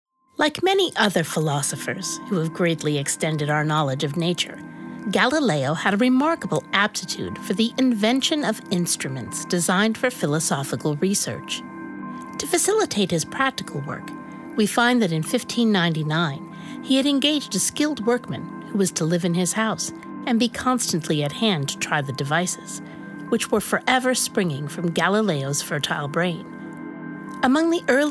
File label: VO Narrative